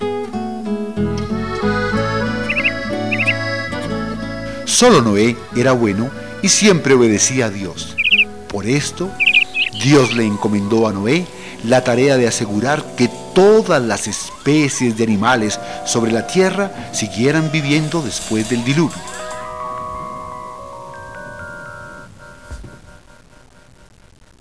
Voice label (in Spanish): NARRA, ESPANOL; Dioses Mayas; CUENTO